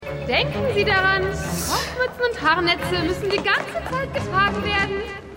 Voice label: Durchsage; Pushing Daisies

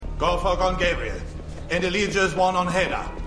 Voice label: Mann, Stimmproben; The 100

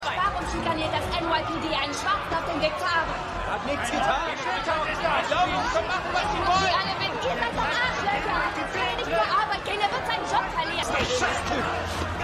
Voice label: Aufgebrachte Frau Aufgebrachter Mann, Stimmproben; LO SVU

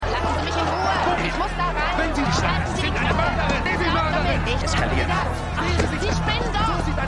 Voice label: Frau Vor Klinik; New Amsterdam

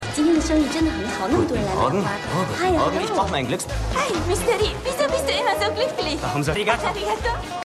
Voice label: Chinesische Floristin Japanische Floristin; Valentinstag

